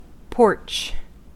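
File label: "porch", US English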